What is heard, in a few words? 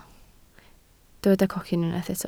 "cocyn", Welsh